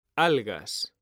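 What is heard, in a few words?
"algas", Spanish, male; mekanta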